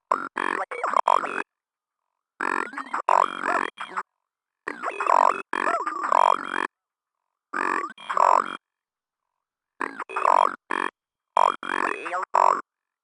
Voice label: robot voices creature robot speaking talking gibberish babbling low register; constant; sonniss gdc